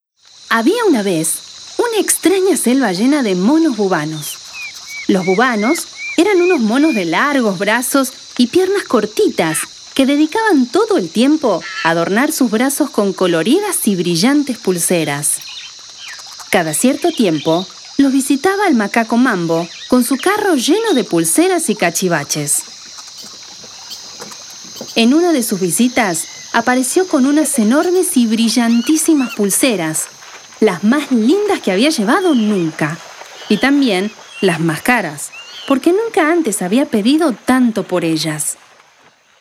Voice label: es AR AUDIOBOOK